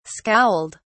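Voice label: "scowled", British English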